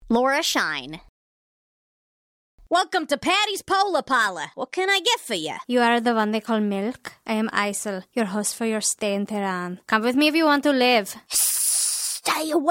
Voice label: animation; Innovative LA